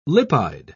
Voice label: "lipide", pronounce

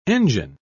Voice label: "engine", pronounce

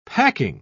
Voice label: "packing", pronounce

